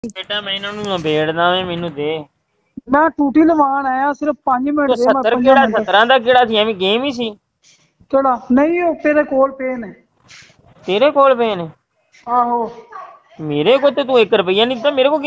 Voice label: Call recording